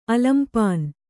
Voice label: "ಅಲಂಪಾನು", Kannada

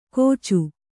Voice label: "ಕೋಚು", Kannada

